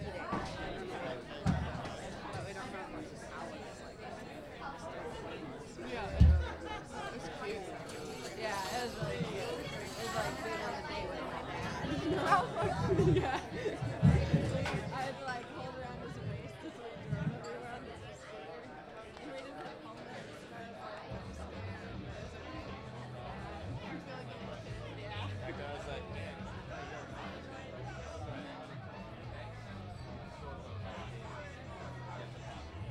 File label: Chatty, bar